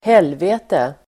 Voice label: "helvete", Swedish